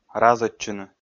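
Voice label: "разӧдчыны", Komi, male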